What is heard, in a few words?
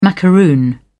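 "macaroon", British English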